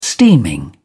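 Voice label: "steaming", British English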